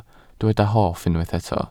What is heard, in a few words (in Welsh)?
"hoff", Welsh